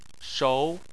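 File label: "shou" tone 2, Chinese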